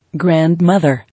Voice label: "Grand mother", English